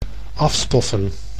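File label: "afstoffen", Dutch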